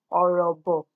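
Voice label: "orobo", Nigerian Pidgin, female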